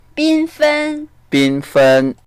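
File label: "bin1fen1", Mandarin Chinese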